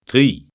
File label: "tri" Breton